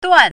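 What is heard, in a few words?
"duan4", Mandarin Chinese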